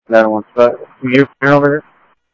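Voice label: Sheriff East County, scanner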